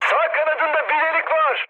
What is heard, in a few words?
right wing, mood high, speech tur; War Thunder